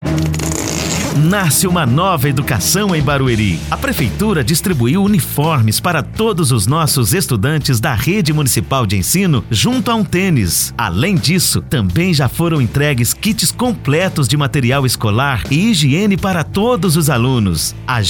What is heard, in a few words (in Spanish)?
locutor spot kit escolar